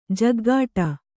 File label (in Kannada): "ಝಾಗಟಿ", Kannada